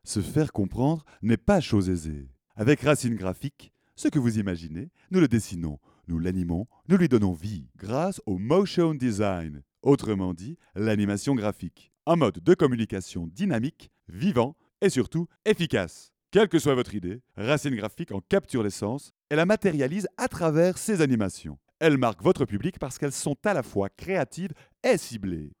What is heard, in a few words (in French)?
voix francais